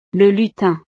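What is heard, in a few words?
"le lutin", French; navidad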